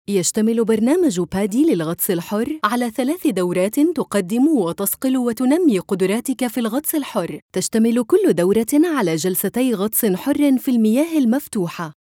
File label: eLearning